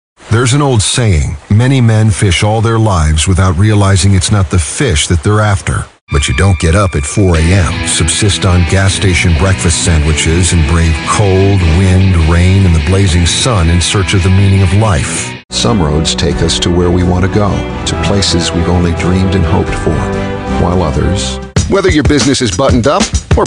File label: ENG